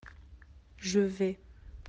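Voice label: "aller", French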